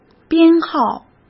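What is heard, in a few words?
"编号", Mandarin Chinese; hsk; chinese-flashcards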